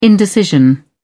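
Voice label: "indecision", British English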